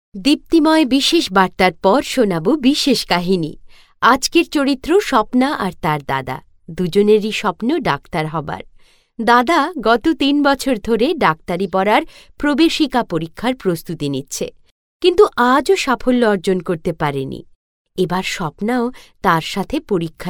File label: Bengali Female